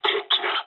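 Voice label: Japanese enemy detected mood med; War Thunder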